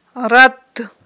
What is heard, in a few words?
"ратт", Aghul